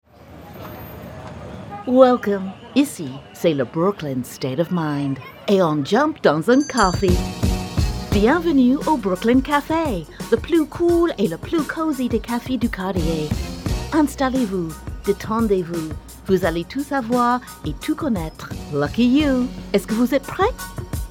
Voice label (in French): maybelline francais